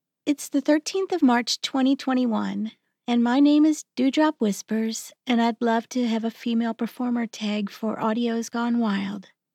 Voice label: F Verification

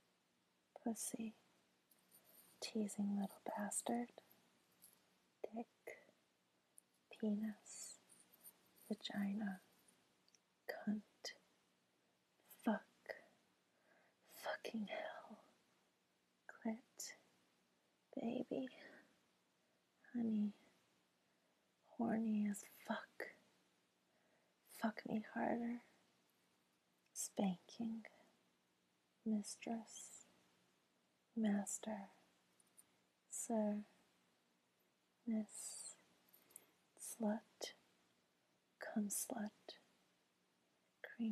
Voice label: [F] Accent Challenge

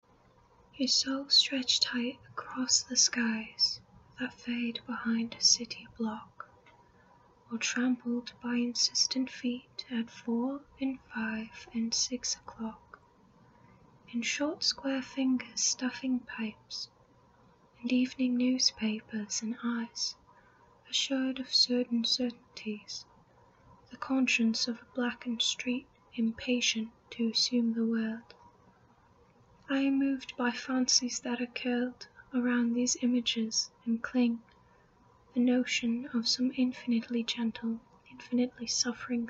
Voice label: British Accent Poetry; Prelude IV; TS Eliot

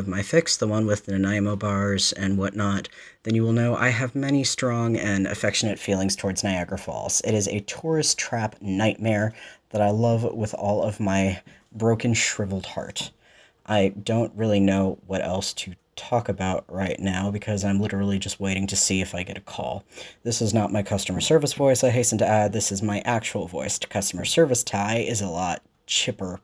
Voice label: Accent Thing

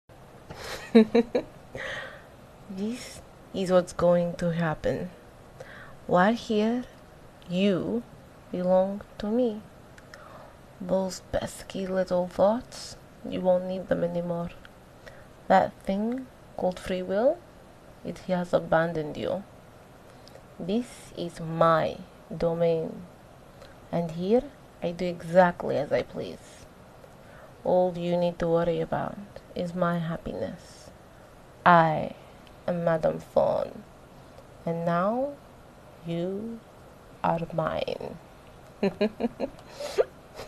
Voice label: Greetings, fdom, russian accent, F4A; Erotic audio

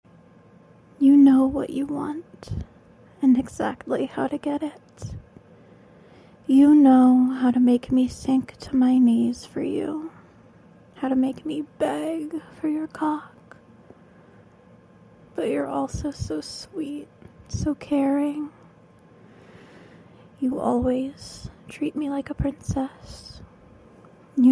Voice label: F4M Monologue; I Want to Be Used by You; Narrative

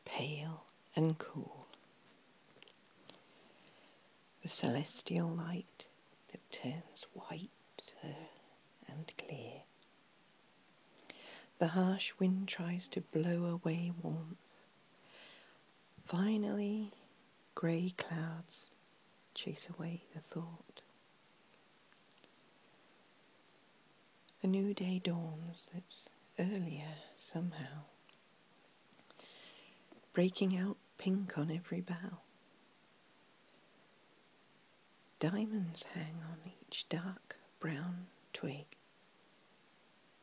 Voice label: Spring rain, Erotic audio

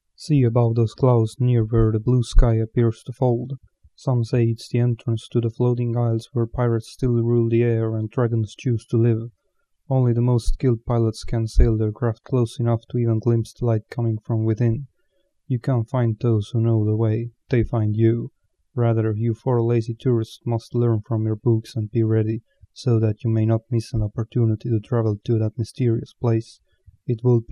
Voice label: accent for the accent thread

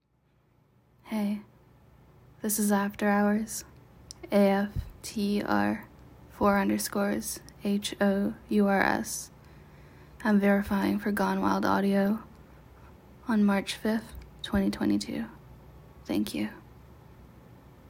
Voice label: F Verification

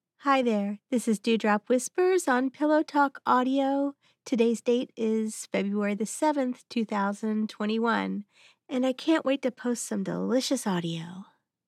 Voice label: F Verification

